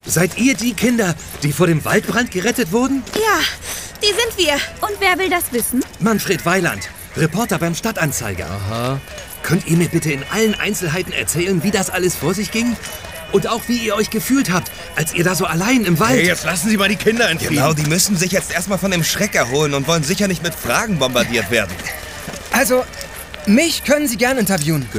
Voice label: DE Hoerspiel; Teufelskicker; LOFT VOICES

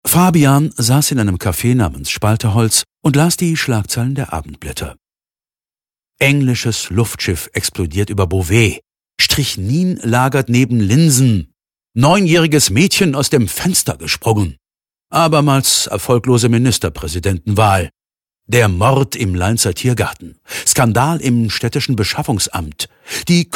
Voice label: erzaehlung

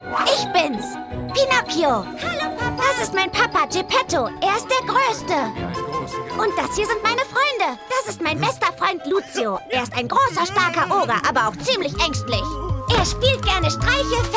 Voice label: Trickfilm Junge; Pinocchio

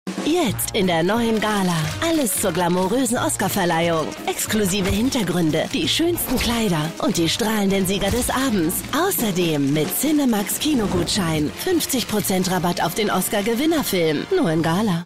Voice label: Werbespot; LOFT VOICES

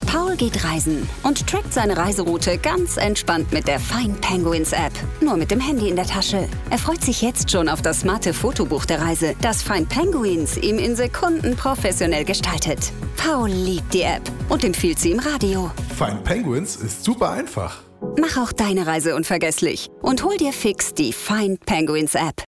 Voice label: Werbung Funkspot jungdynamisch; FindPenguins

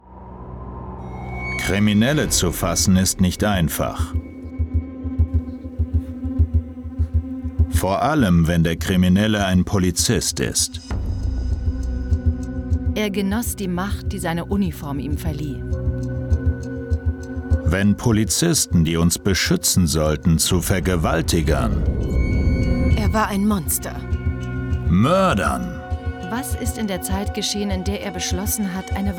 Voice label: Off Sprecher, Doku, Off, German; Cops Gone Bad With Will Mellor

